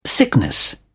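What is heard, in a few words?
"sickness", British English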